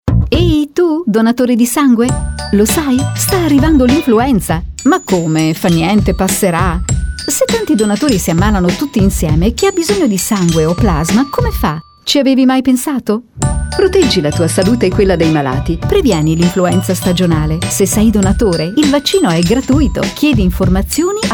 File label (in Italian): Campagna Vaccinazione Antinfluenzale Spot Radio